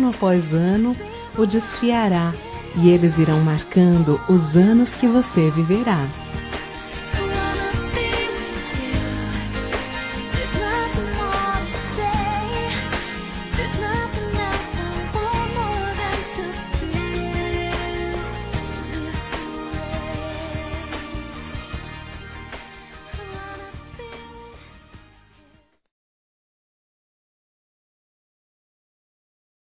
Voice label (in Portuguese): Aniversário Religioso Feminino; Aniversários; ANIV. Evangelico